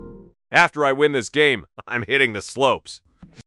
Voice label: happy, speech; fertig classic games